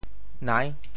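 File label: "nai6", Teochew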